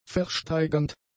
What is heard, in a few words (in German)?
"versteigernd", German; adjectives